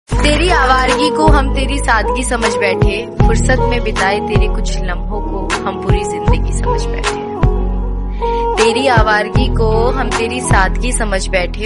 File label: Shayari hindi Ringtone